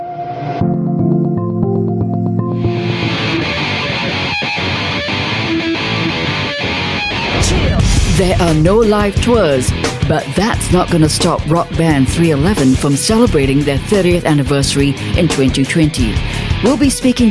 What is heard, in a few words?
Asian English Voice Over Reel